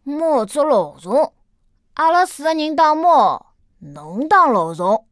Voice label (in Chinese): 残疾小孩